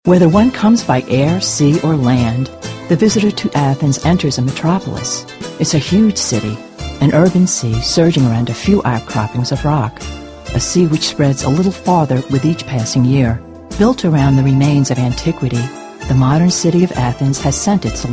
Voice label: female voices for radio commercials

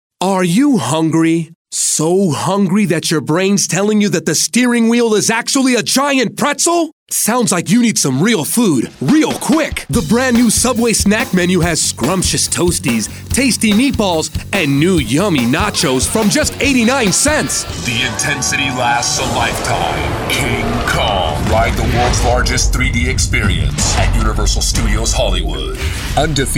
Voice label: impact, male voices for radio commercials

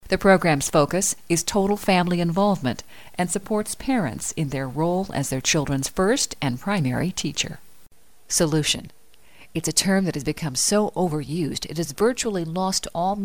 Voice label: smart, female voices for radio commercials

